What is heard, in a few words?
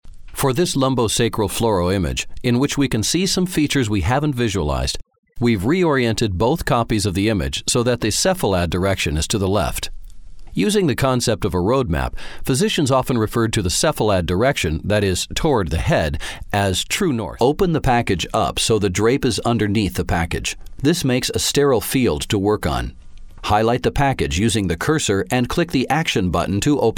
Medical Narration Accurate Clear